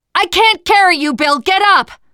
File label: revive friend loud, teen girl; player; survivor; voice